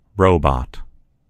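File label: "robot", American English; gcompris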